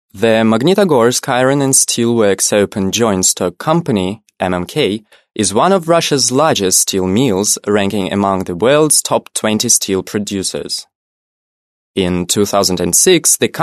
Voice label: british english male